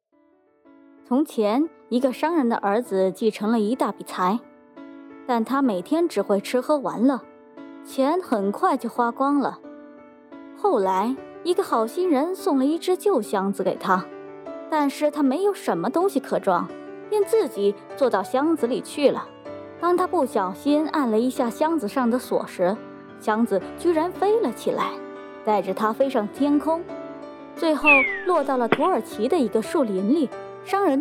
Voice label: 少儿故事

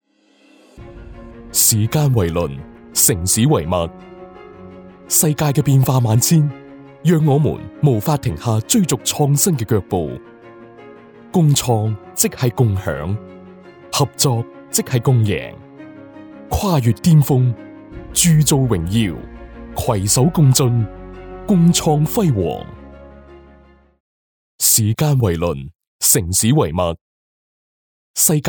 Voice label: 粤语 专题 宣传; 东莞

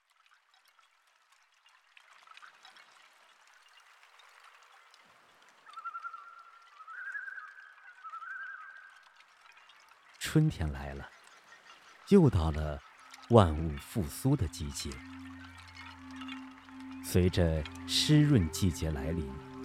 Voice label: 纪录片动物世界

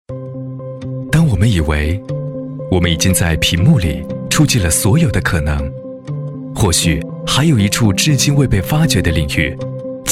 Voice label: 高端男科技时尚; 颠覆你的想象

